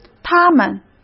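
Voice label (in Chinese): "他们", Mandarin Chinese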